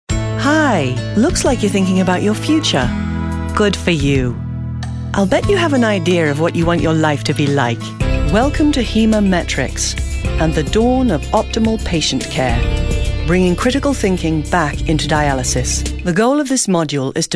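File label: narration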